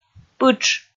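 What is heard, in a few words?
"пытш", Komi, female; kpv audio dict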